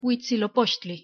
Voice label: pronunciation huitzilopochtli; nah